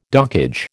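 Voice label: "dockage", American English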